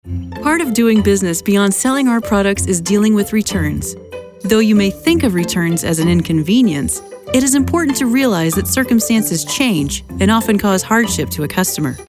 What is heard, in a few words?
E-Learning